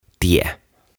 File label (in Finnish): "Tie" "Road"